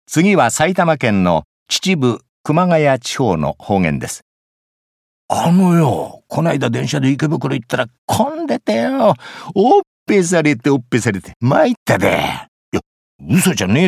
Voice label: 方言

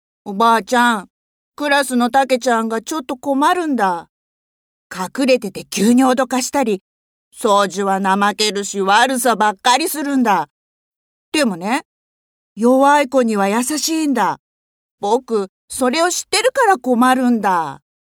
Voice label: 少年

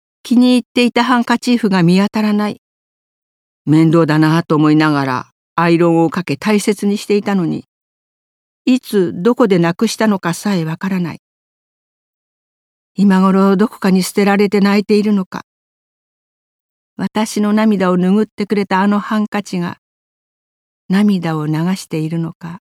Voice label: 朗読

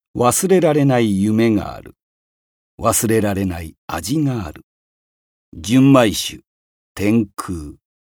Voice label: お酒CM

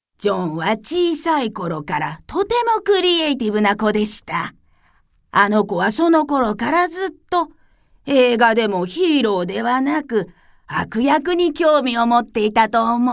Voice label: 老人

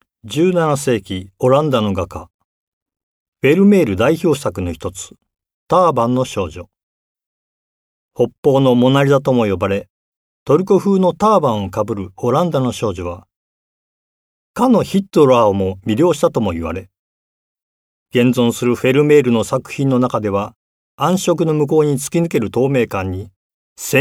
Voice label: 芸術解説

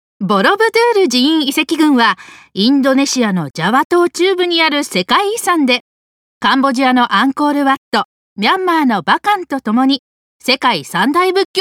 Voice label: 紀行ナレ

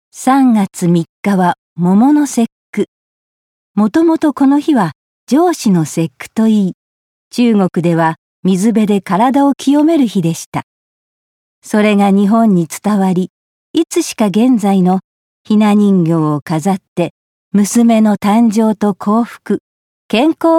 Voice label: 解説ナレ

